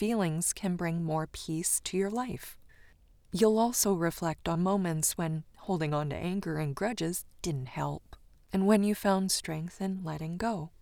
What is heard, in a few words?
eLearning COLLEAGUE FORGIVENESS, Conversational; eLearning Sample